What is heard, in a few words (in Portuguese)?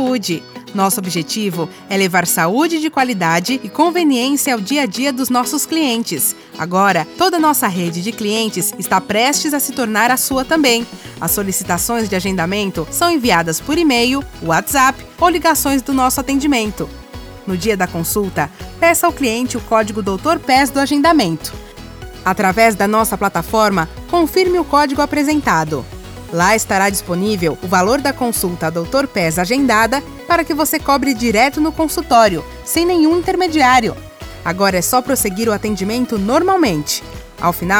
Locucao Comercial; Doutor Pass